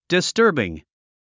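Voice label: "disturbing", English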